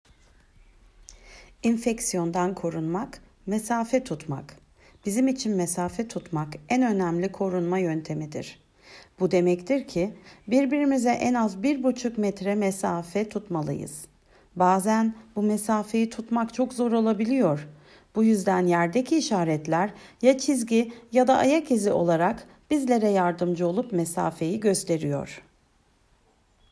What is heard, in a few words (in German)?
Türkisch